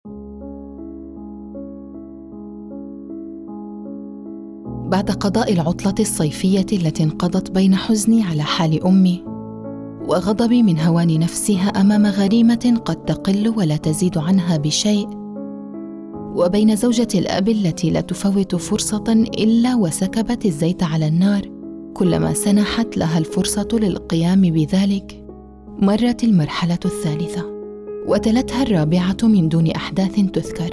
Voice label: arabic audiobooks

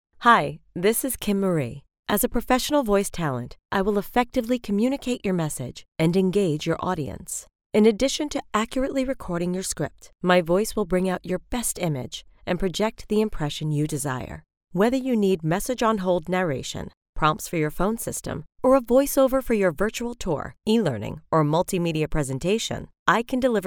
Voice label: english international promos

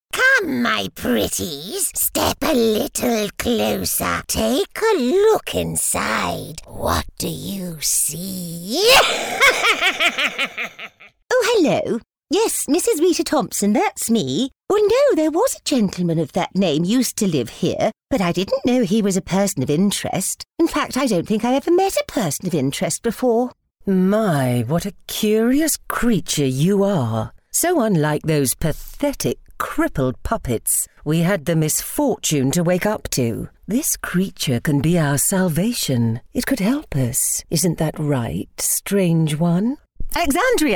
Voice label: english british animation

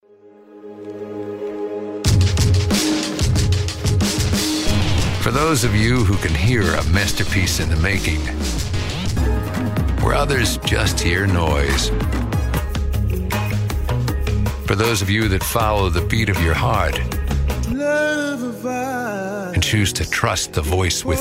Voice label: English American movie trailers; North American English professional, working voice actor

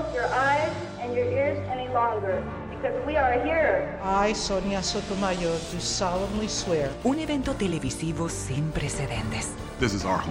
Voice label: spanish latin american promos

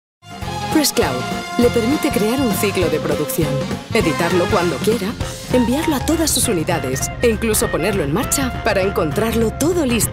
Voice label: spanish explainer videos

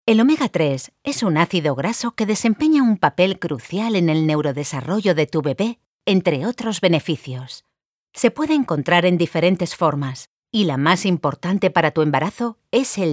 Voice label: spanish explainer videos

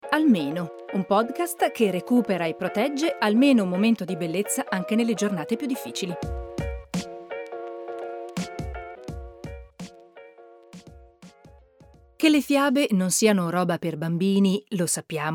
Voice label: italian podcasts

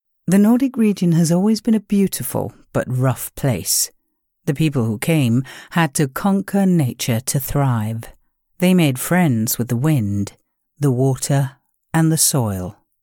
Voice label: english narration

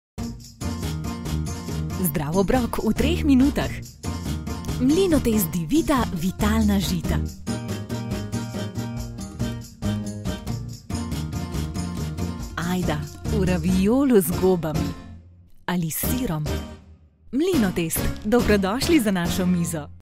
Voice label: slovenian commercial

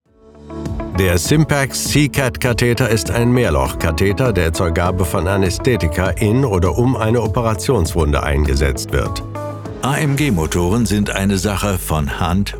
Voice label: german narration

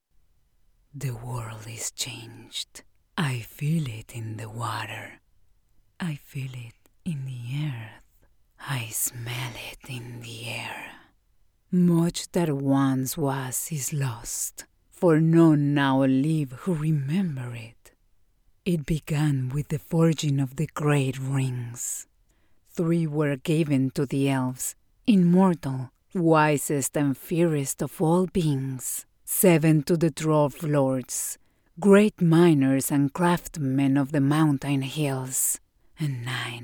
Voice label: english movie trailers

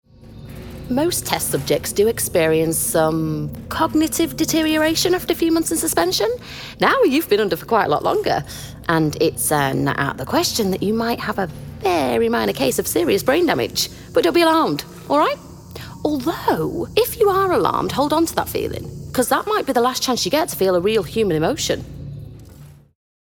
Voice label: English british animation

